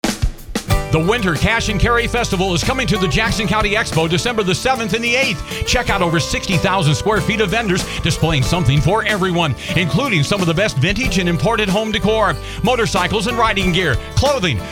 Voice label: radio spot; cash carry